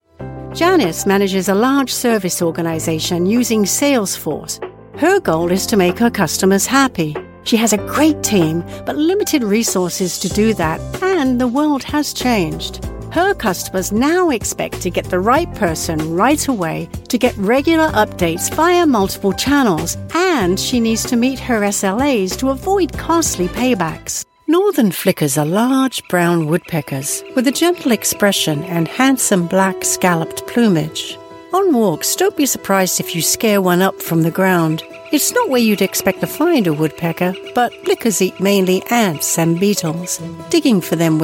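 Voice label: corporate, Mid Atlantic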